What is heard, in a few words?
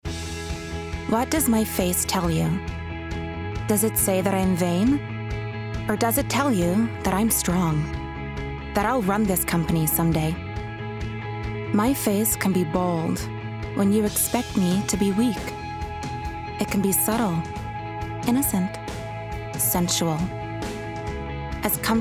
Commercial; Cover Girl